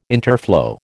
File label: "interflow", American